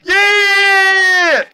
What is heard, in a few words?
"yeah", long